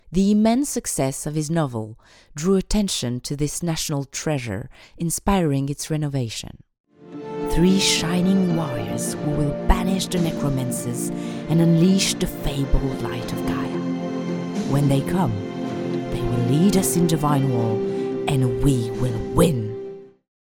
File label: Montage English